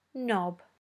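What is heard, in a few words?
"knob", British English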